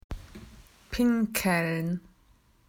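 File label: "to wee", Australian English; PictureDictionary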